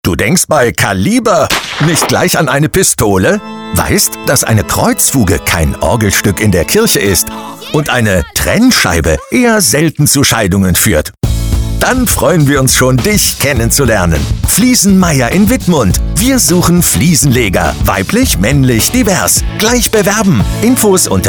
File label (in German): Funkspot Recruiting; ZGO; Fliesen Meyer